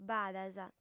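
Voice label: "badas", female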